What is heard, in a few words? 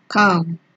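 "calm", Nigerian Pidgin, female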